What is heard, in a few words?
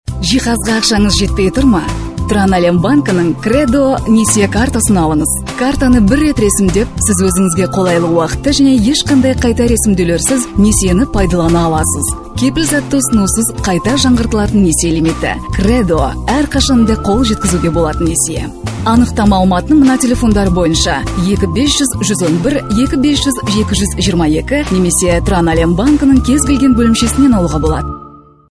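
kazakh female commercial; voice demos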